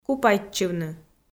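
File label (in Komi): "купайтчывны", Komi-Zyrian, female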